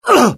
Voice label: death, UK; mohaa; dialogue